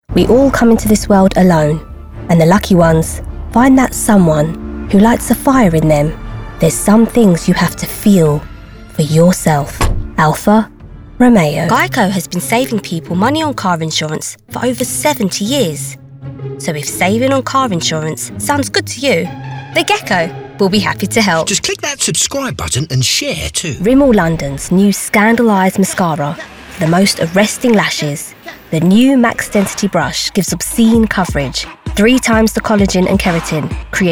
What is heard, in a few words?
Commercial